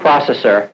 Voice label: LoFi Words, LoFi Vocals